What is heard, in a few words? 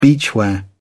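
"beachwear", English, British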